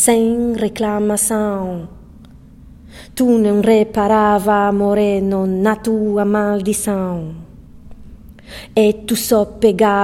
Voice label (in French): prononciation femmes se eu fosse o teu patrao